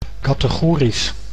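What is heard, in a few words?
"kategorisch", Dutch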